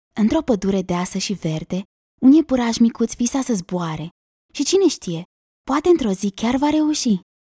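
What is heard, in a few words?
Romanian female naratiune copii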